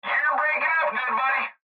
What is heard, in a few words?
Cb Trucker Radio; Radio Voice; Blastwave